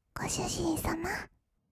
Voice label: "ご主人様", kawaii voice; ASMR; 二人称; 1200 kawaii voice!!

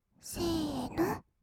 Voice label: "せーの", kawaii voice; ASMR; 1200 kawaii voice!!; 音ゲー

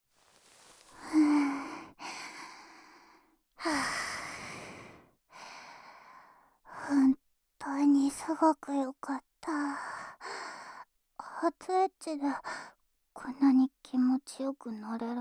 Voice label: 吐息 混じり に イチャラブ ピロートーク と 求愛 キス, ASMR

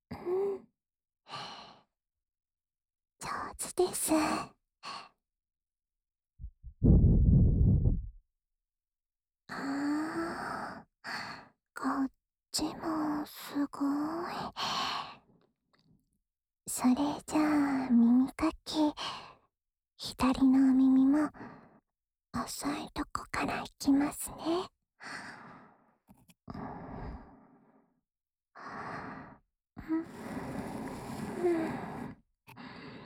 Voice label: アドリブ耳かき（左耳）, ASMR; まいてつ Last Run!!

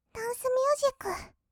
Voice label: ダンスミュージック, kawaii voice; ASMR; 音楽ジャンル; 1200 kawaii voice!!

